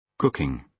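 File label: "cooking", British English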